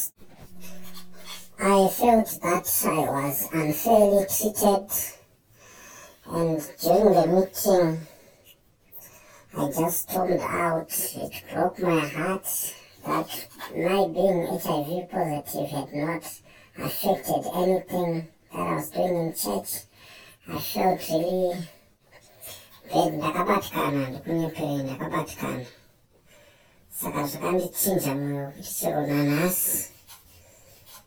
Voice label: Voice Changer; HIV stigma